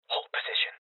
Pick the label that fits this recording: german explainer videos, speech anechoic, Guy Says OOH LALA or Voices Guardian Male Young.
Voices Guardian Male Young